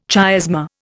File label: "chiasma", Indian